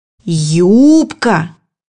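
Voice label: "skirt", Russian; bukvar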